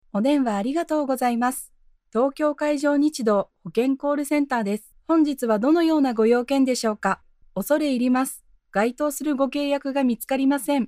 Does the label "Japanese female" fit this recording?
Yes